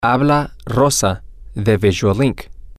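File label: this is X from Y, Spanish, male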